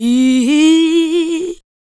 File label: SPOKEN VOX, ADLIPS